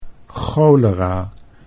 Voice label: "cholera", Dutch

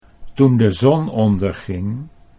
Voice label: "toen de zon onderging", Dutch

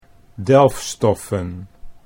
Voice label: "delfstoffen" Dutch

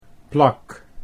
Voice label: "plak", Dutch

